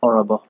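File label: "orobo", Nigerian Pidgin, male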